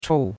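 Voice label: "chou4", Chinese; Cours-de-Chinois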